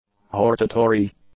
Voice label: "hortatory", English; adjectives